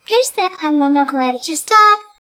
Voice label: cute japanese girl stutte